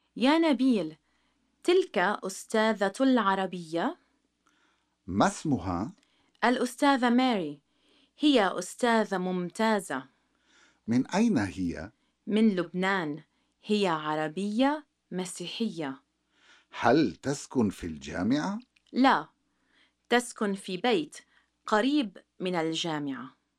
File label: Conversation; SALAMAAT